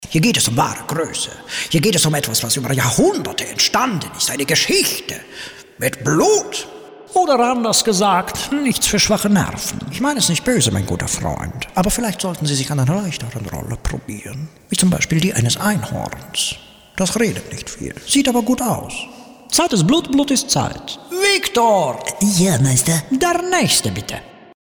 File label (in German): mit Dialekt